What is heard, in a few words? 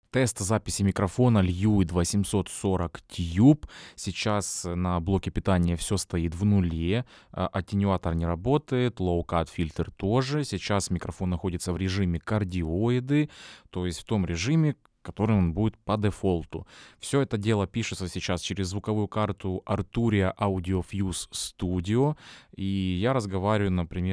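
lewitt lct 840 voice test; Lewitt LCT 840 — это конденсаторный, широкомембранный ламповый микрофон за 1300$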